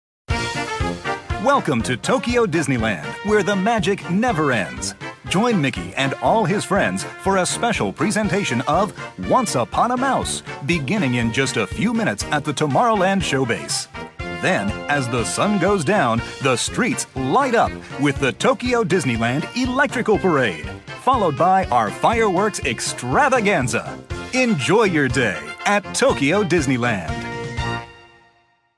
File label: usa man